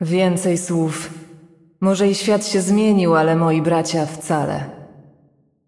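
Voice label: voice over, Polish; Yunara Intro; bloom minigame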